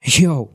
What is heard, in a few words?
"yo", voice